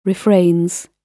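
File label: "refrains", British English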